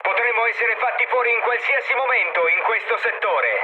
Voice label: chat, speech it; War Thunder